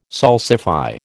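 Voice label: "salsify", American English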